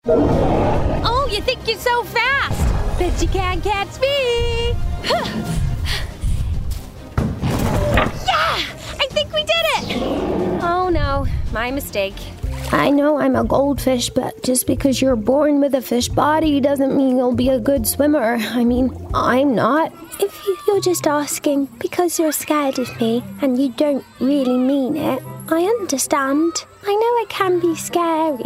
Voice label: Animation Character; Animation/Character Showreel